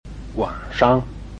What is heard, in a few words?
"wan3shang5", Chinese